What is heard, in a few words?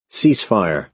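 "ceasefire", American English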